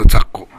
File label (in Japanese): "zakko", minami yamagata